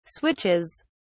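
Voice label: "switches", British English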